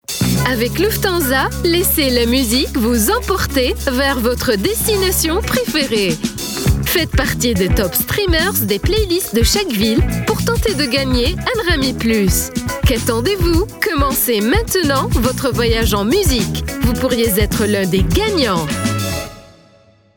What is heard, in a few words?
European French Web Ad; Lufthansa